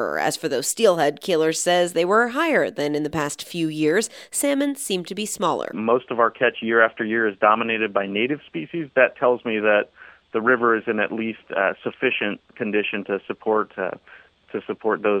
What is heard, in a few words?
Fish count radio interview